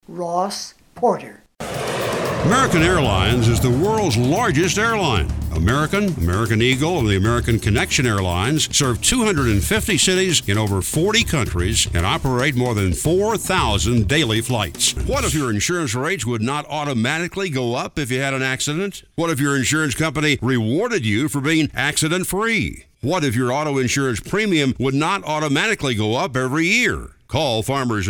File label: commercials, MEN